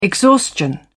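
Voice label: "exhaustion", British English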